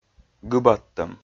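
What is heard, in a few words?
"гыбадтӧм", Komi, male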